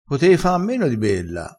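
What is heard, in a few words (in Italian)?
"be", vocabolario dialettale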